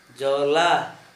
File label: stream, Gta, Dialect: Hill; dʒola